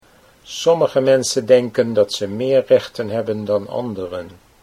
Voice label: "sommige mensen meer rechten", Dutch